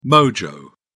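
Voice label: "mojo", British English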